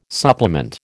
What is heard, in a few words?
"supplement", American